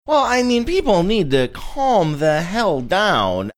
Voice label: saying well i mean people need to calm hell down funny